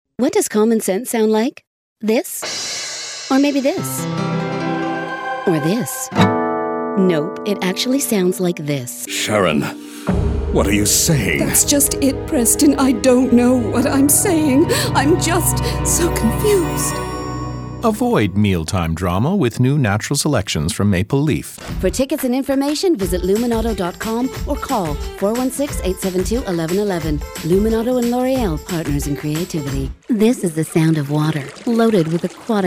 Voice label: Radio